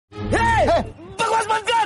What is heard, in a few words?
funny meme dialogue